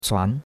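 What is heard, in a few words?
"cuan2", Chinese; Cours-de-Chinois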